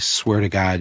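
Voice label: swear To god, American English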